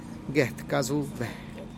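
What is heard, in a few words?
"get kazube", Teotitlán del Valle Zapotec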